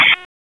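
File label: Police Northeast District Dispatch, Raleigh; p25; wake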